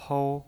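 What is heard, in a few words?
"hou", first tone, pinyin voice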